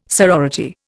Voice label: "sorority", Indian